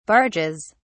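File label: "barges", British English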